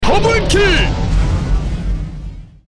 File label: double kill kor